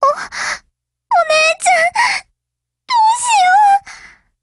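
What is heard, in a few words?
BREAK, Japanese; GF Alarm; Carcano1938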